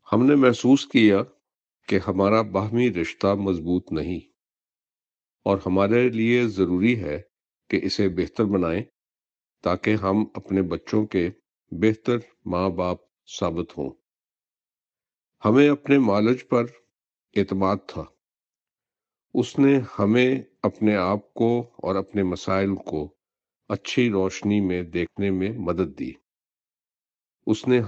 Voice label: Urdu Quote